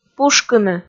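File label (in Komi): "пушкыны", Komi, female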